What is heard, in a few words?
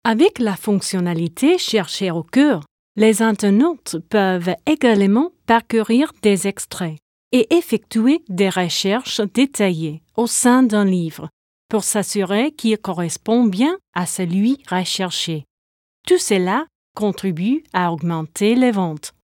french